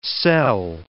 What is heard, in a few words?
"sell", English